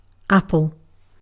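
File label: "apple", British English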